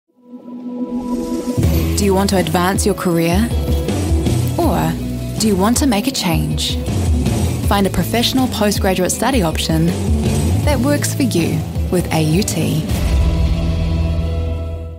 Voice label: new zealand voiceover corporate

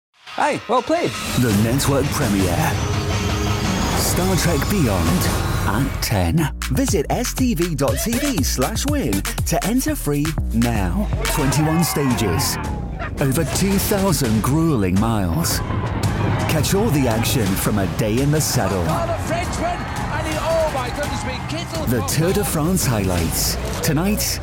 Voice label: tv promo

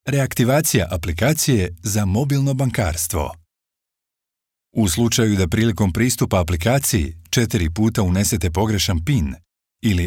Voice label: Bosnian voice over elearning

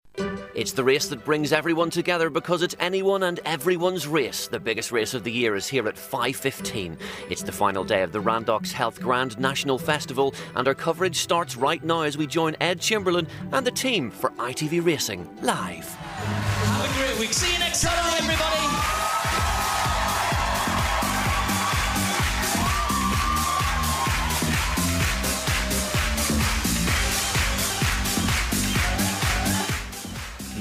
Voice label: itv continuity

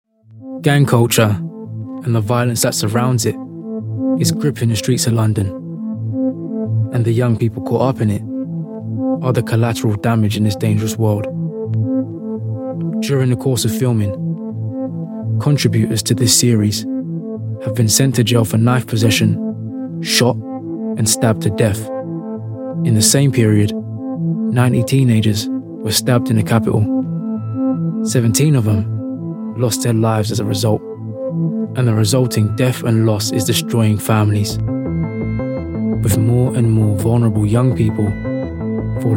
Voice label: documentary

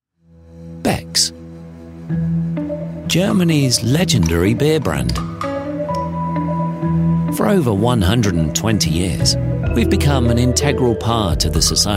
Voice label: natural voice

